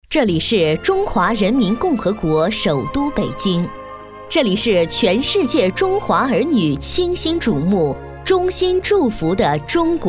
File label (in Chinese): 女